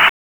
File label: Police North District Tac 1, p25; Raleigh; wake